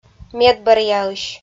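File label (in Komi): "медбӧръяысь", Komi-Zyrian, female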